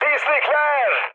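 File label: Turkish enemy detected, mood med, speech; War Thunder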